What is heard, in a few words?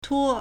"tuo1", Chinese